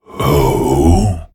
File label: Male Lich Thinking; zh